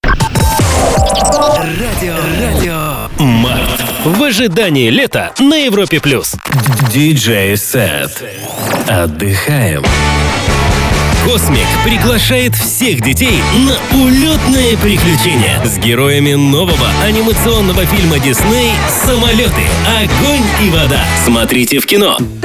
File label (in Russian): Мужской голос